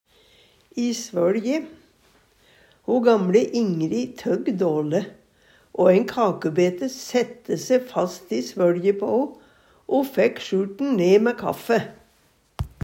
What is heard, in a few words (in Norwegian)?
i svoLje, Numedalsmål; Ho gamLe Ingri tøgg dåle, o ein kakubete sætte se fast i svøLje på o.